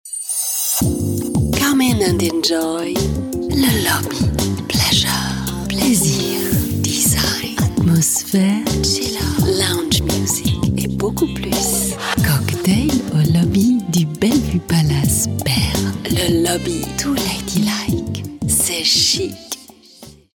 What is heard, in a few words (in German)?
Schweiz F W1, voiceactors